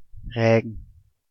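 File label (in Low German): "Règen", Salland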